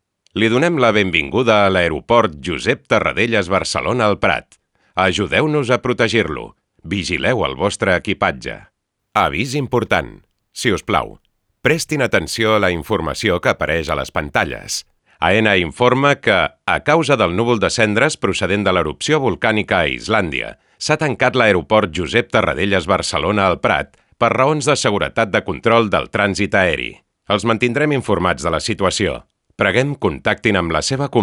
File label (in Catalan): MEGAFONIA Catala; AENA